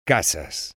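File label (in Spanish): "casas", Spanish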